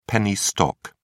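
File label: "penny stock", English, British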